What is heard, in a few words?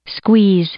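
"squeeze", English, female; verb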